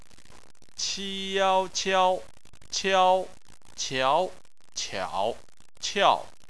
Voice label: "qiao", chinese

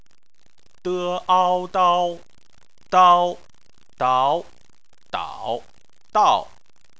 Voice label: "dao", chinese

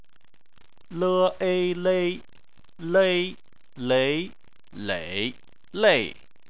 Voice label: "lei", chinese

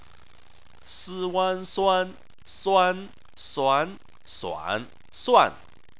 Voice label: "suan", chinese

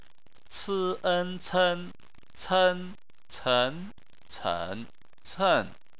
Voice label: "cen", Chinese

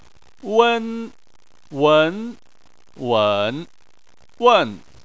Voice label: "wen", chinese

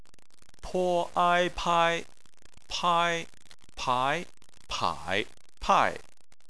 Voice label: "pai", chinese

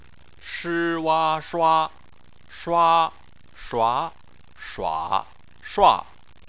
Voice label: "shua", chinese

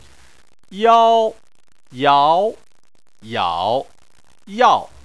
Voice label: "yao", chinese

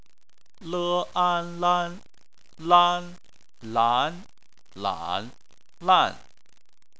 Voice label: "lan", chinese

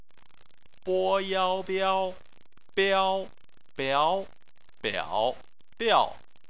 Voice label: "biao", Chinese

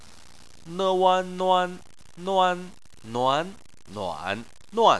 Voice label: "nuan", chinese

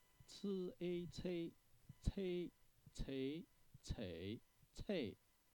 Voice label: "cei", Chinese

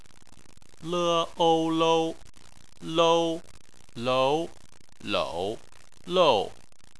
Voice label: "lou", chinese

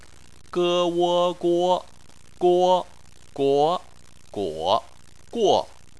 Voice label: "guo", chinese

